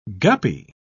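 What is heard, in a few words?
"guppy", British English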